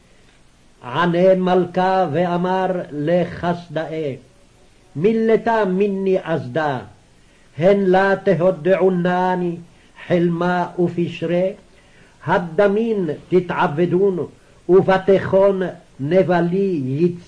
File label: Daniel Reading Practice, Aramaic